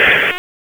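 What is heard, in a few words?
Police Downtown District Tactical, P25; Raleigh; wake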